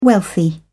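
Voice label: "wealthy", British English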